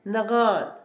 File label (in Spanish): difícil, Zapoteco, Asunción Ocotlan; Adjetivos; INALI; Haspelmath